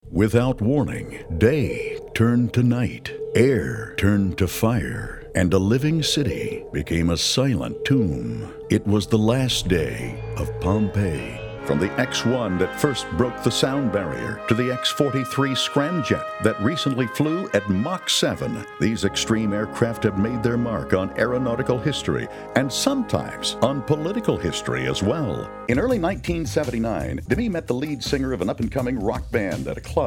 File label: Narration